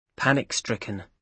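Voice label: "panic stricken", British English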